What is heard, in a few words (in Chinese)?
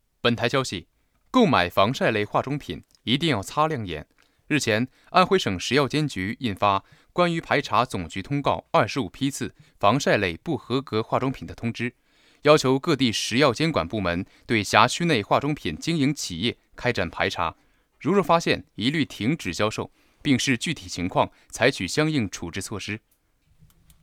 新闻